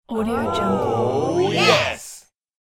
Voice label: small group shout oh yes; human sounds

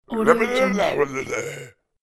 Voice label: cartoon caveman anxious

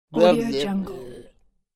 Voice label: cartoon caveman female disgusted tired

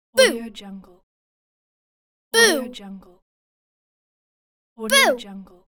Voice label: female child shouting boo; cartoon; cartoon sounds

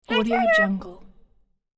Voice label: cute baby dino greeting; cartoon; cartoon sounds

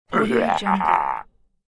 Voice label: cartoon caveman bad joy; خنده بدجنسانه

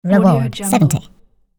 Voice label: cartoon voice says reward

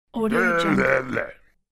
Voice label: caveman blah blah loudly; cartoon

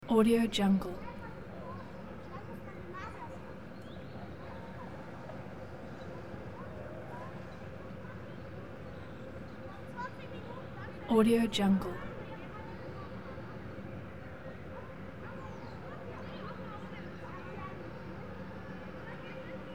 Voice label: train station children talks chatters

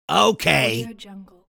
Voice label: cartoon large character okay

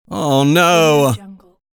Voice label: male annoyed "oh no"

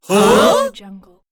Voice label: surprised group saying huh; cartoon

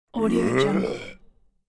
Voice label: cartoon caveman surprised

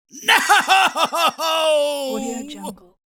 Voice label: man shouting agonizing "no"

